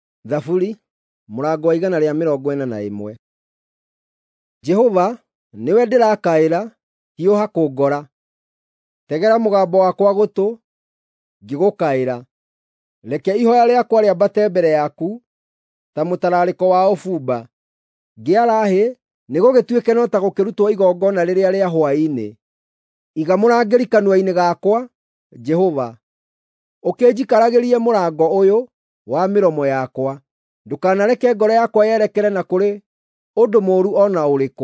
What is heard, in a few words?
psalms, gikuyu drama